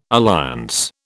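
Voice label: "alliance", British English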